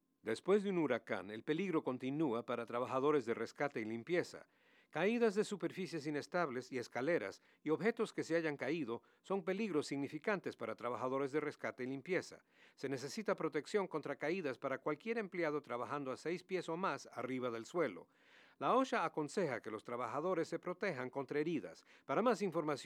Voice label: falls spanish; Hurricane Facts